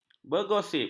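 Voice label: "bergosip", Malay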